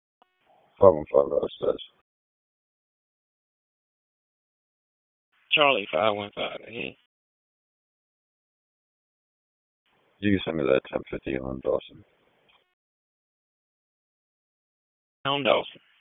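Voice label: Raleigh Police Southeast District Dispatch, p25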